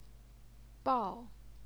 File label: "bao4", Chinese pinyin